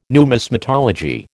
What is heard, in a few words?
"numismatology", American